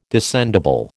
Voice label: "descendible", American